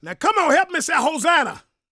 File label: PREACHIN, Gospel, PREACHER; ILIO; Vocal Planet